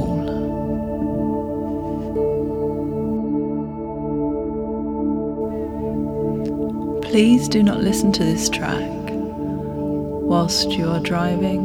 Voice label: Guided Visualisation Forest; WB School